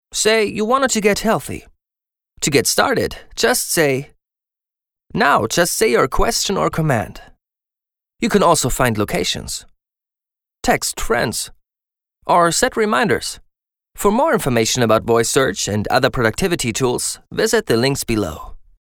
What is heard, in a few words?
German Accent